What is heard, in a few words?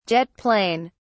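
"jet plane", British English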